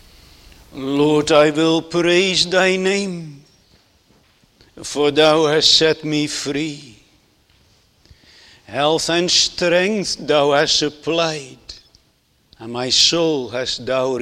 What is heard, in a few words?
Sermon Continued; jesus mercy to one taken with palsy